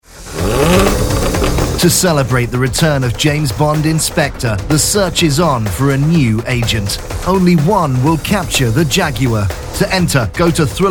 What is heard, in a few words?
Radio; Jaguar; EN